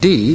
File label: "d", male British